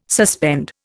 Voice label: "suspend", Indian